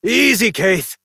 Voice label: Horse Stop Adult; Duviri

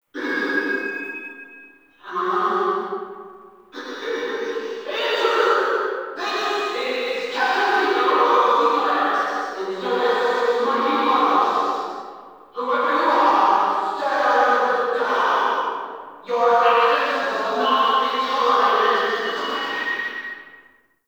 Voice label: Announcement; Xeto

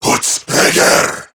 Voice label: Player Spotted, Male, Battle Barks; Dialog; Warframe; CorruptedGrineerMale

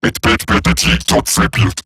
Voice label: Cover Me, Robot Large; Warframe; Eximus Corpus Robotic Large; Battle Barks

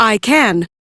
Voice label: Accept, Female; en; Warframe; Railjack Crew; Cephalon Suda

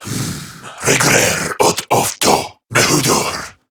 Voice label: Patrol Corrupted Grineer Male

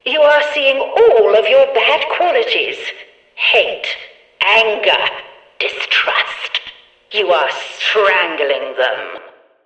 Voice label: Background Announcements

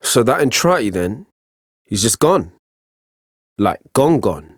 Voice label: Ambient Barks, en; Warframe; Mall Romance; Quincy

